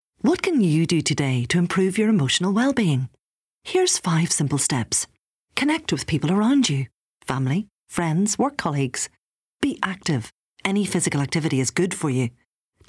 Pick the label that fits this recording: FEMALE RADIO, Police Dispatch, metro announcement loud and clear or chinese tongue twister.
FEMALE RADIO